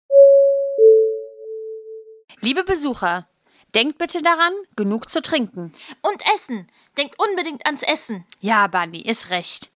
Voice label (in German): durchsage